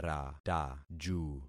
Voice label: "rataju", concat syllables; Affixing experiment